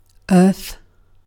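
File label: "earth", British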